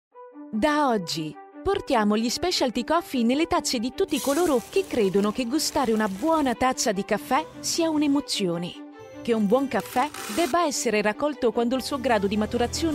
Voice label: Corporate, Professional voice actress with a fresh, dyna